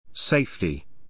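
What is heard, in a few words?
"safety", English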